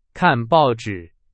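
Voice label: Chinese, example sentence; hsk2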